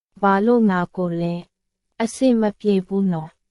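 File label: Myanmar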